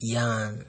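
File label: "yarn", Nigerian Pidgin, male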